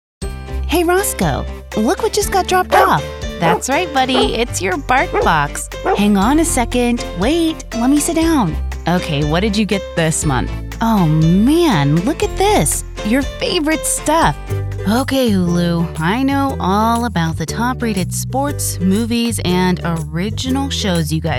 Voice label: COMMERCIAL